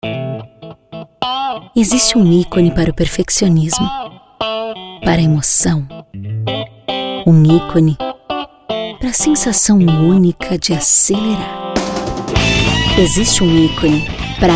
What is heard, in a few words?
portuguese brazilian automotive